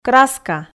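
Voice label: "краска", Komi, female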